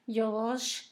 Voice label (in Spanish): aguacero, Zapoteco; Fenómenos; San Pedro Mártir; INALI